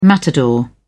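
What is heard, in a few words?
"matador", British English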